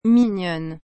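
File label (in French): "mignonne", French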